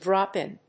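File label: "drop-in", English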